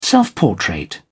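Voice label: "self-portrait", British English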